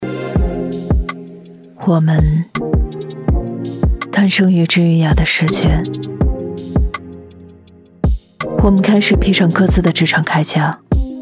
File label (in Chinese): 广告高级质感冷感走心; 卡迪灰